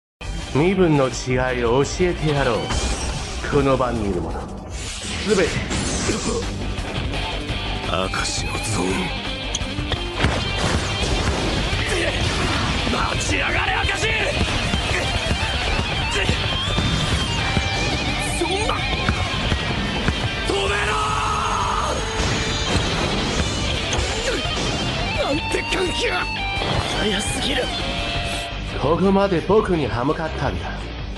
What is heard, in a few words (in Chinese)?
日语样音; 神谷浩史声线